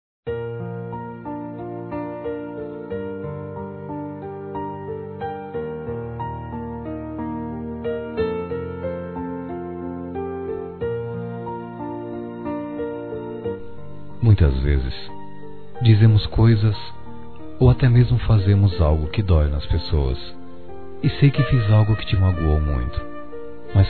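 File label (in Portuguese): RECONCILIAÇÃO, Masculino; Telemensagens; Dia Dos namorados; Telemensagens 2017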